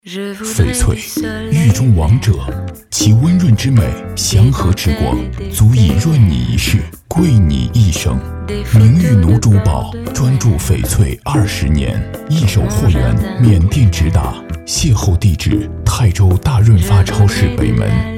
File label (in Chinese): 广告配音; 明玉奴珠宝